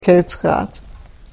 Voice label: "keechxaach", karuk

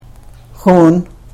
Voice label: "xuun", karuk

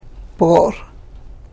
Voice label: "poor", karuk

